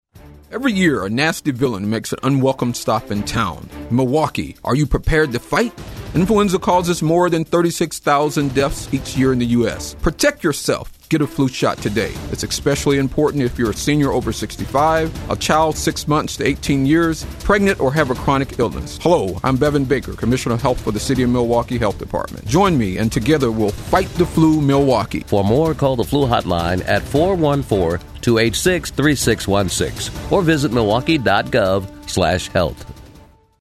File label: radio spot; flu shots